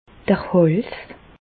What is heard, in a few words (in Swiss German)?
"hals", Bas Rhin; medecine; cou